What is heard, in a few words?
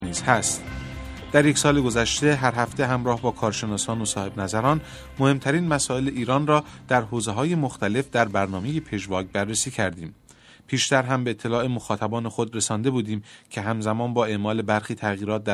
farsi